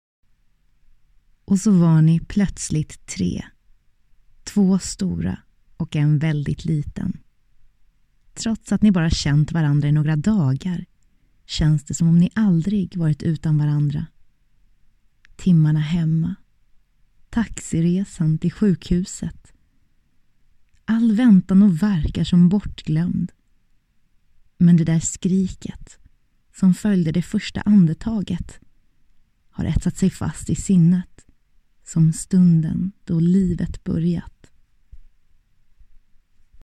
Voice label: Swedish Voice Over Commercial